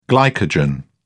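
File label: "glycogen", English, British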